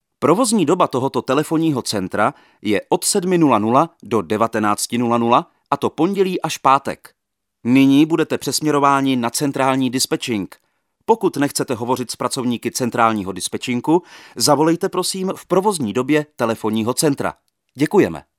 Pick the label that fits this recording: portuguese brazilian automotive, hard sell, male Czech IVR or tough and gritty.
male Czech IVR